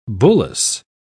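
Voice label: "bullace", British English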